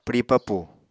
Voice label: "pwri papù", Apatani